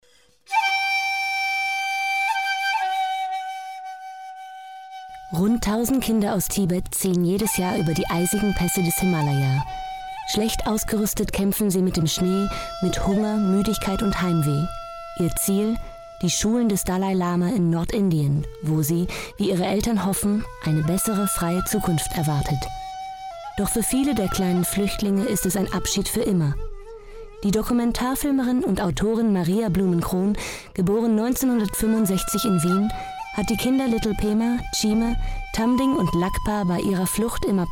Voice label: German